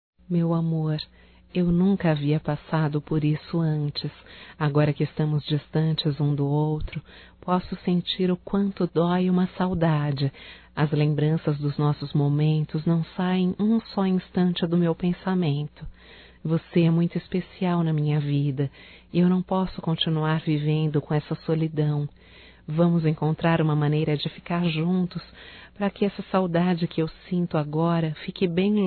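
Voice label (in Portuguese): SAUDADES ROMÂNTICA feminino, Telemensagens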